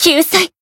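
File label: voice finish Japanese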